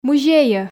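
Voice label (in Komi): "музейӧ", Komi, female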